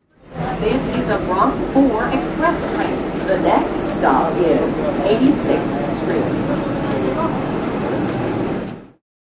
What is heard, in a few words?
Subway PA Announcement; Part of Subways (Sound Effects:Vehicles)